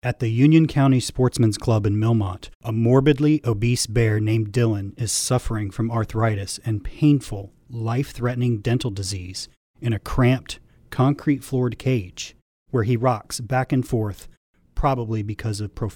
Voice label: radio psa; dillan the bear; peta us